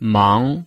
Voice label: "mang2", Chinese